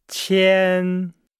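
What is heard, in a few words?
"qian", Chinese; Nihao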